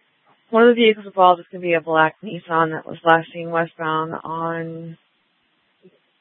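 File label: Scottsdale PD North Dispatch; Nth Dispatch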